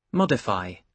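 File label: "modify", British English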